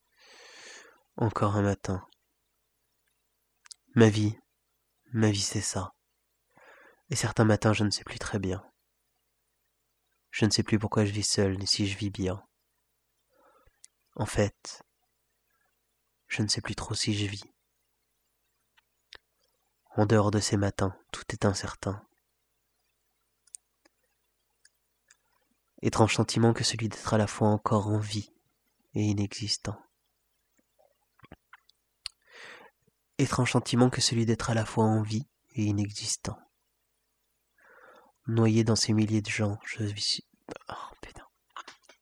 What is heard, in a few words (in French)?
voix off; la chambre de schrodinger